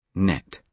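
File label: "net", British English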